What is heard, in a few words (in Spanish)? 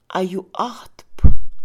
ayunar, Mixe, Mujer; Religión; INALI